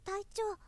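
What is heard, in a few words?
"taityo", boy; shonen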